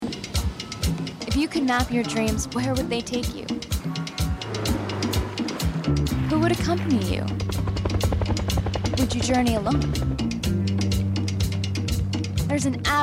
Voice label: Travel Channel Promo